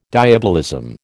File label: "diabolism", American